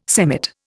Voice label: "semmit", Indian